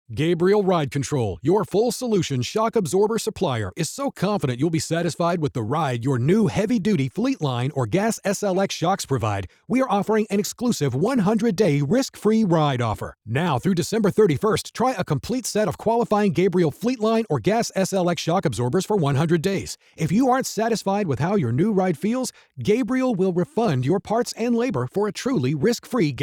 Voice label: Radio Ad; Red Eye; Gabriel 100 Day Ride Offer